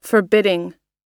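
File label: "forbidding", American English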